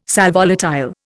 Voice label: "sal volatile", Indian